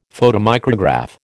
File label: "photomicrograph", American